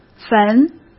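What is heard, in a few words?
"坟", Mandarin Chinese; chinese-flashcards; hsk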